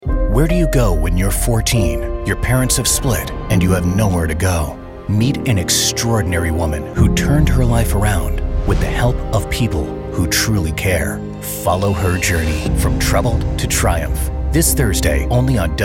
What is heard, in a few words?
Human Interest Somber Yet Uplifting